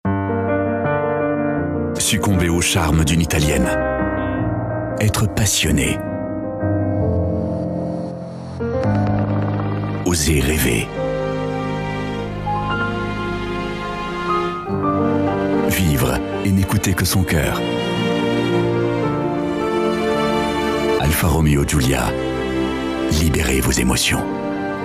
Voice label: SPOT TV; ALFA ROMEO GIULIA